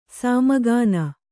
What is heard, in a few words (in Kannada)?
"ಸಾಮಗಾನ", Kannada; sāma gāna; noun